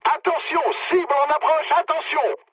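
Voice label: near waypoint, mood medium, French; War Thunder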